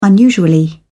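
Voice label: "unusually", English, British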